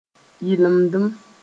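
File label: "yɩlemdem", Mossi; unique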